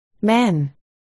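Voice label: "men", spoken word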